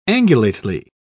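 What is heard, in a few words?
"angulately", British English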